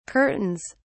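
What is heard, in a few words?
"curtains", British English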